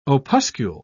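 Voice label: "opuscule", British English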